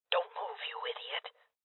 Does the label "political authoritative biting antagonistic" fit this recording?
No